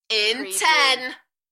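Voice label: British female In ten Enthusiastic; beanstalk audio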